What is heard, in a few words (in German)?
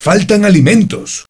wav spanisch